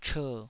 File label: "cher3", taiwanese